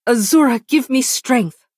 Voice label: Dunmer female implore

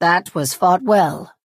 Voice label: Redguard female good game

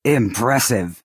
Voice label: Orc female nice play

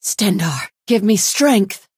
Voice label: female implore; Imperial

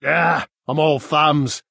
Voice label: male oops; Bosmer

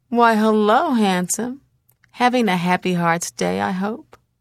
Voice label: Unused Holiday Hello Redguard Female

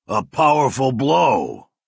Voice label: Orc male nice play

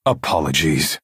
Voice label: Breton male "sorry"

